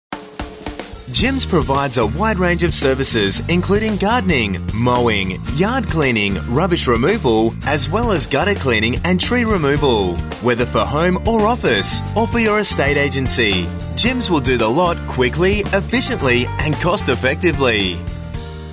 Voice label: ON HOLD Sample